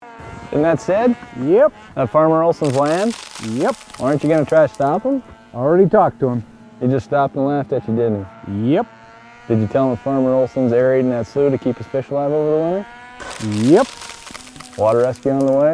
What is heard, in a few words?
Stick to the trail Ice PSA Radio